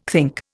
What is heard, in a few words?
"think", Indian